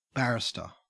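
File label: "barrister", Australian English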